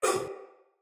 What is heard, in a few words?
Pain, Soldier Male; Sinecure Soldier Male A